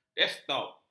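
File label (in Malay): "desktop", Malay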